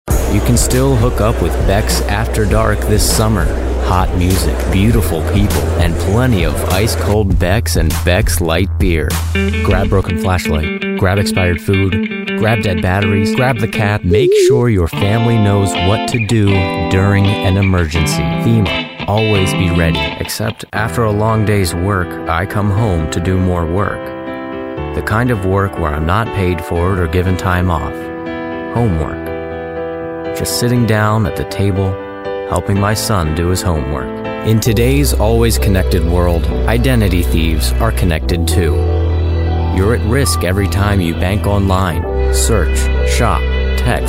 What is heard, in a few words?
Commercial